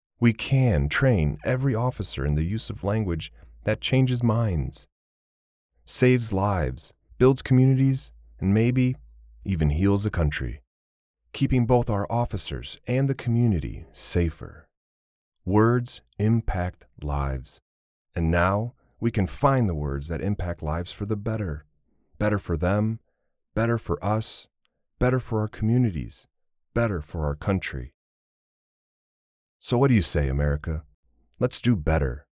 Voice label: Tech Narration; Greenkey